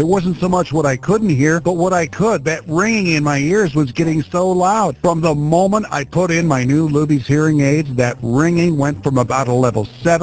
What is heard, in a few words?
Tinnitus Radio Spot; Luebbe Hearing